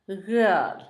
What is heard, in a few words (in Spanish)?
pie, Zapoteco, Asunción Ocotlan; Cuerpo; INALI; Haspelmath